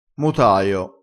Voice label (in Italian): "motaio", crespinese